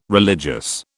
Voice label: "religious", British

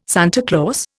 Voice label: "Santa Claus", Indian

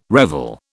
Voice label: "revel", British

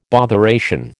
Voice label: "botheration", American

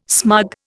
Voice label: "smug", Indian

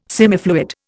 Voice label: "semifluid", Indian

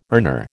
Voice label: "earner", American

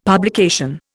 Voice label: "publication", Indian; Dictionary

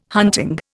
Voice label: "hunting", Indian

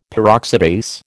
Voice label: "Peroxidase", American

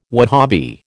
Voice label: "Wahabi", American

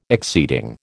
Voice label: "exceeding", American

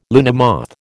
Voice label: "luna moth", American

